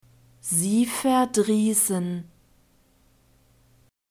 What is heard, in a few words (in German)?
"sie verdriessen", German; verbs present tense irregular